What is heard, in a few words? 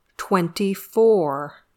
"twenty-four", English